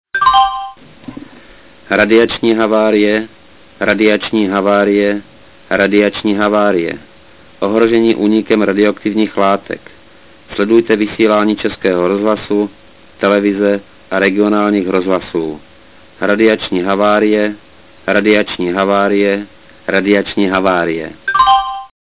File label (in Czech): verbalni radiacni havarie, sireny